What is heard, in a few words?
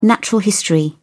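"natural history", British English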